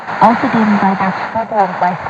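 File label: "alzati", Italian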